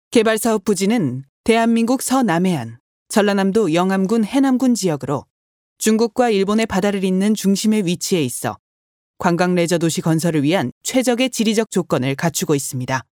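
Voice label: Korean female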